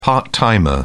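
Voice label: "part-timer", British English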